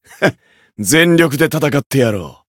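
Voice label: encounter fear, Japanese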